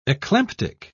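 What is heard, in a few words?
"eclamptic", British English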